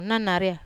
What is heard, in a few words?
"nanaria", Wamesa